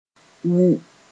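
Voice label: "wu", Mooré; buzz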